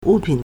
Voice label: "wu4pin3", Chinese